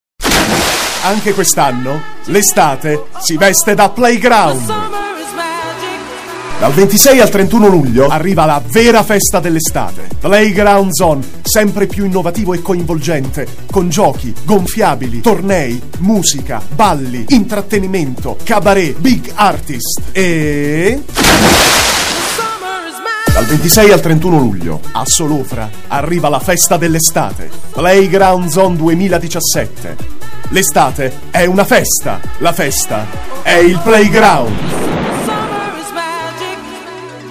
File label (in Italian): sprintosa uomo singola; voci